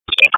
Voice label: Police Southeast District Disp, p25; Raleigh; wake